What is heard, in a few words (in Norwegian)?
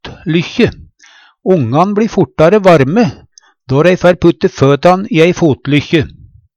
"fotlykkje", Numedalsmål; Substantiv hokjønn; Ongan bLei fortare varm på føtan når føtan låg i ei fotlykkje.